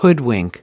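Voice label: "hoodwink", American English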